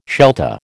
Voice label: "Shelta", American

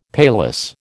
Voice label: "paillasse", American

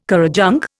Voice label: "currajong", Indian

